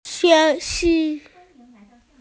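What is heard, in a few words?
"eggplant", Mandarin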